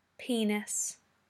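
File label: "penis" English, British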